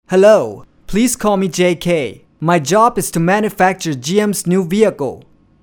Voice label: Asian Accent